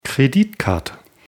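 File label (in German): "Kreditkarte", German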